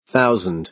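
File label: "thousand", American English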